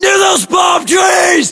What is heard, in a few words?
US landmark near palmtrees, battlechatter, english